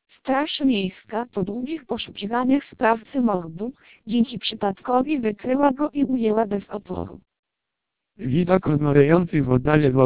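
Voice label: Polish speech, TWELP 480 bps vocoder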